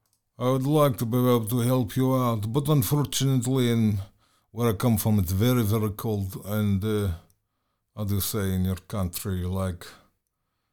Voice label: russian

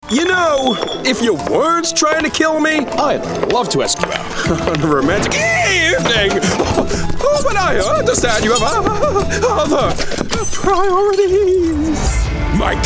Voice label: Character

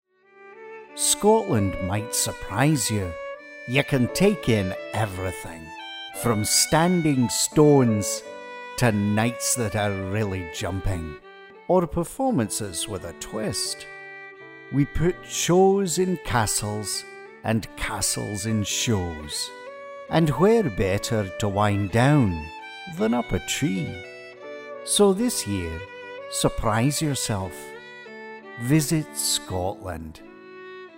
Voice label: Commercial; Visit Scotland

